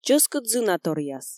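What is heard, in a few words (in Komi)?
чӧскыд зынаторъяс, Komi, female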